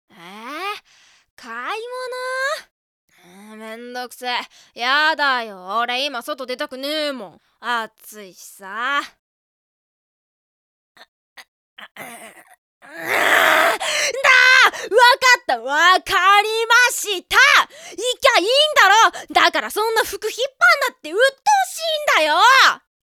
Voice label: boy